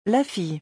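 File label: "La fille", French; vocabulaire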